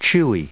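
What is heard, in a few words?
"chew", US English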